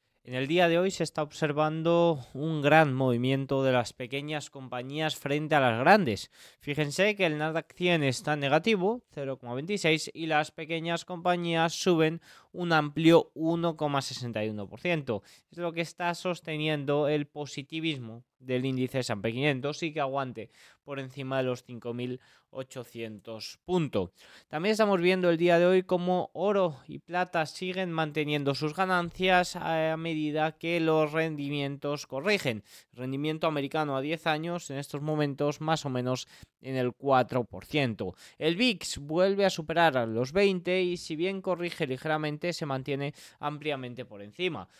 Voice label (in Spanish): radio; noticias bolsa